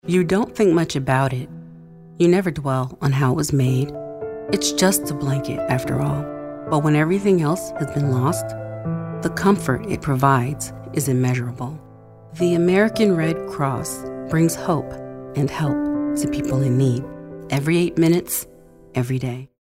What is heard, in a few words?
soft spoken